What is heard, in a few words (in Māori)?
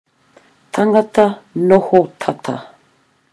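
"tangata noho tata", Māori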